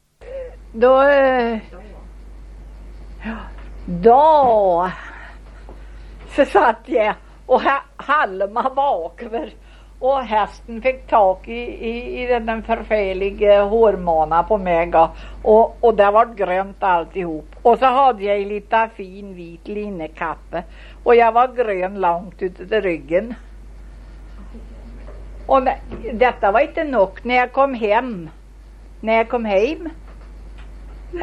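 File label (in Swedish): Köla Värmland, dialekter; Folkminnen; Svenska sagor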